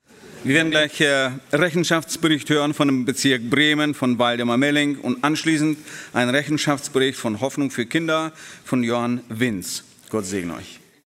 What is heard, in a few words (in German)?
Ansage